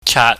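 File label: "chaat", Cantonese, mid tone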